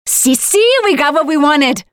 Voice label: Round win attack, Voiceovers; Project Argo